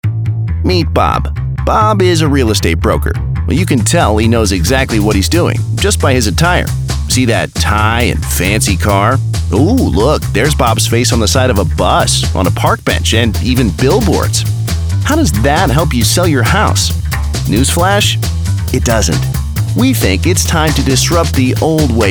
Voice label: Narration Instructional